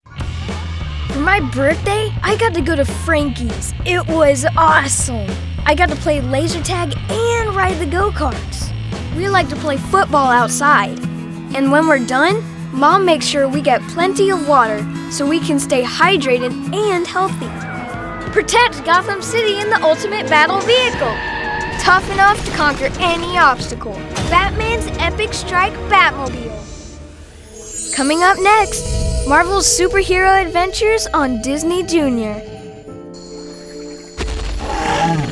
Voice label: Male Child spots